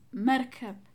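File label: "voile", Amharic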